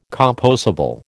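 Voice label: "compossible", American English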